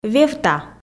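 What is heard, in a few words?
"вевта", Komi, female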